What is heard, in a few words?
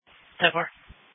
Police Tactical Encrypted; sdr